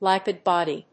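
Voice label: "lipid body", English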